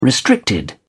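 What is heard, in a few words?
"restricted", English, British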